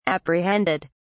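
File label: "apprehended", British English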